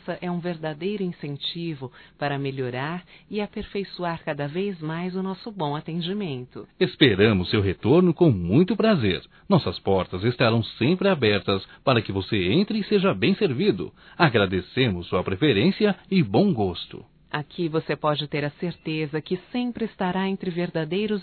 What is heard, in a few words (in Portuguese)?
CLIENTE BANCáRIO DUAS VOZES; Profissionais; fem; Telemensagens 2017